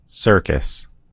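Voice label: "circus", American English; gcompris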